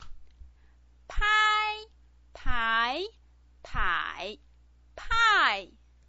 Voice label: "pai", Chinese pinyin

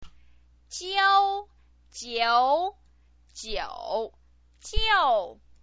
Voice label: "jiu", chinese pinyin

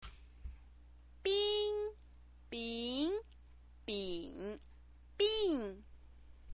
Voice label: "bin", Chinese pinyin

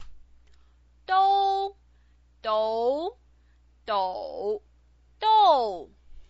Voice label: "dou", Chinese pinyin

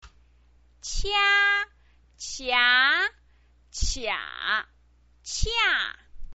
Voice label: "qia", chinese pinyin

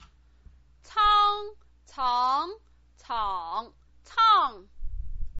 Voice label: "cang", pinyin

